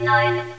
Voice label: "nine", speech; robotron

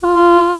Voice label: Solfege, Claire's Voice; Opcode Systems

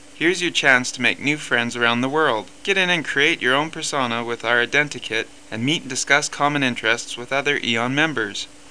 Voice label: chat; E-ON

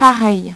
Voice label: "pareil", French; HyperSound™2

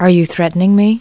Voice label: threat

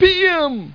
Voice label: "pm", Talking Clock

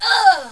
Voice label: Female Die; Army Men TiS

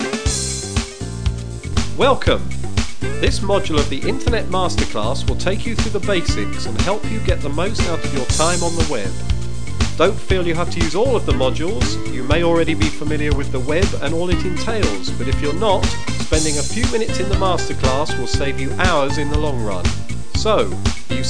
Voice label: welcome plus music

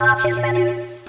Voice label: "options", speech; robotron

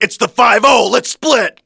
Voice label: panic, thug; SINDEMO; bank

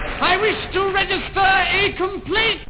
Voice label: complain

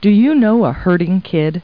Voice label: people, kid

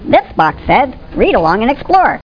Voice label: Narrator

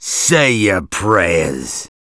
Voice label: Kill, Male; gangsters

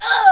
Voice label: Female Hit; Army Men TiS

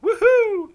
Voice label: guy happy; Classic Cribbage 1.0

